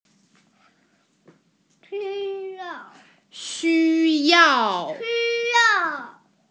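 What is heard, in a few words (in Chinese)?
"xu1yao4", Mandarin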